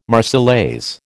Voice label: "Marseillaise", American